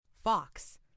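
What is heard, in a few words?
"fox", English; FoodChain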